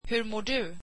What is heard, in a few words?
swedish how are you